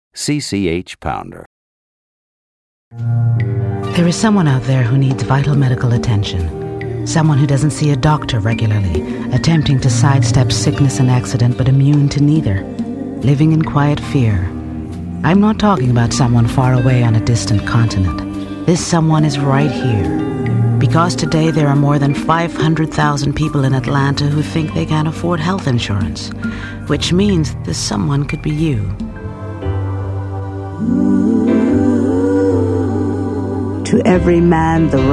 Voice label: commercial; Innovative LA